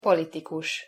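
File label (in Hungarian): "politikus", Hungarian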